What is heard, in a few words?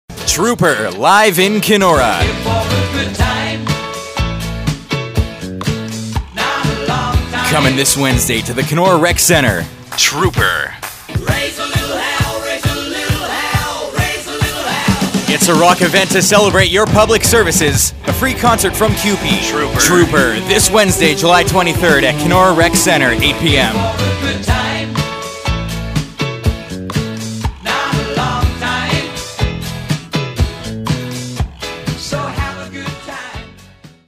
SPOT MALE